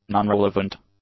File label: "nonrelevant", english; adjectives